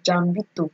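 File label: "jambito", Nigerian Pidgin, female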